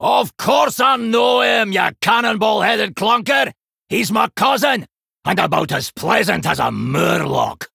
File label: Male Dwarf; Tavish; Boss Reveal